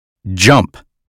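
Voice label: "jump", American English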